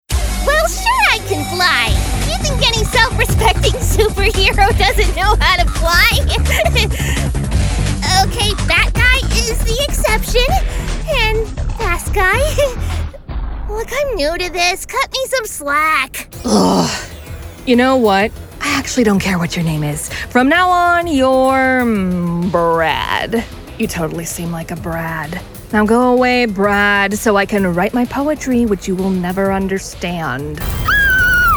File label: animation